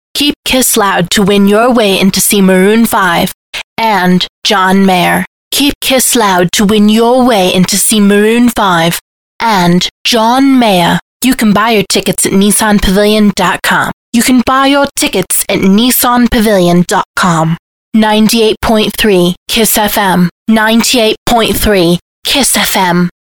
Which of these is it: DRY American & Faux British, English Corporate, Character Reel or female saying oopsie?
DRY American & Faux British